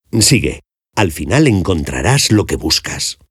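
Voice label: Spanish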